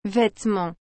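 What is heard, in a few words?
"vetement", French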